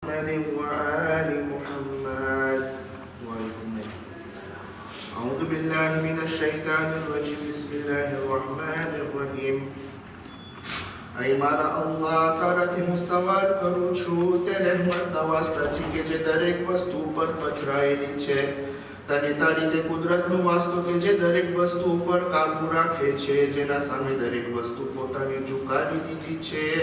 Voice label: Dua Komeil recite Gujrati; khojaradio